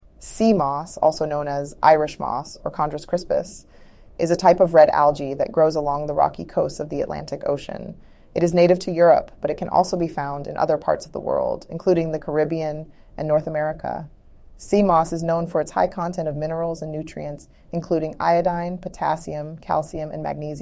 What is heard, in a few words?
synthesized audio